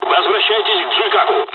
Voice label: dialogs russian; evac; War Thunder